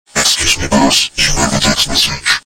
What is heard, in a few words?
Iron Voice